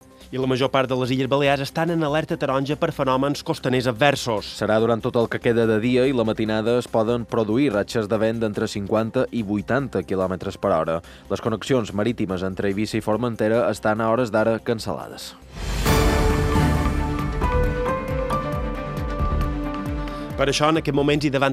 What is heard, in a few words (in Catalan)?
locució notis ràdio vent; IB3 Notícies; Leonardo deixa vents superiors als 100 km/h a punts de les Balears